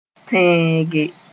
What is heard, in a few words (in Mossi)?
"tẽege", Moore